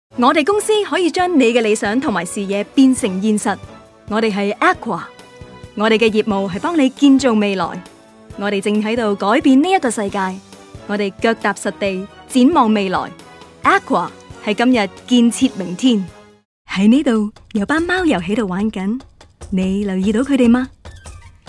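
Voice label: Cantonese Narration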